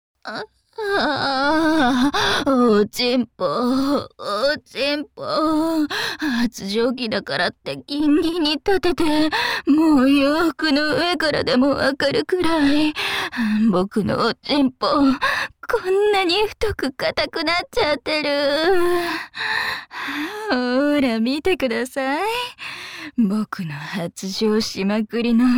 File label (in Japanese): 発情耳舐めフェラ, ASMR